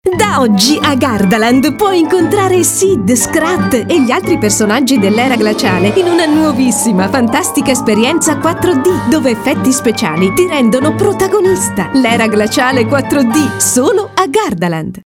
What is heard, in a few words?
Radio ADV; Ice Age